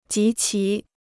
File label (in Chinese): "及其", Chinese